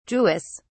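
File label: "jewess", British English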